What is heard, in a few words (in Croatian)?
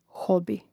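"hobi", hrvatski; mreznik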